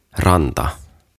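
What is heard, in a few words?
"Ranta", "Beach", Finnish, English; OTAVA KONSERNI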